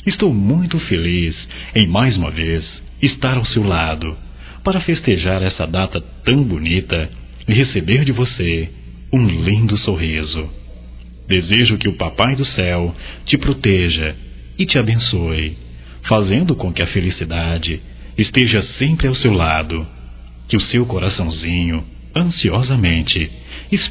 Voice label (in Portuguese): Natal geral, masc